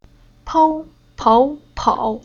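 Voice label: "Pou", Chinese syllable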